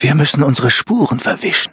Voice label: defeat, de; cookie run